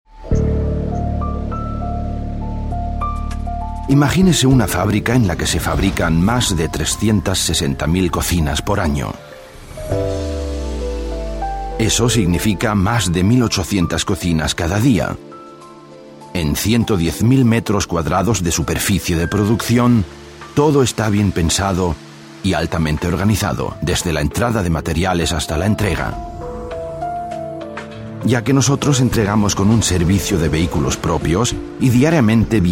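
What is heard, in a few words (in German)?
Spanish, Kommentar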